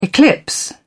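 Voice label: "eclipse", British English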